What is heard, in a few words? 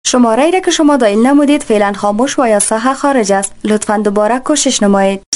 Dari Female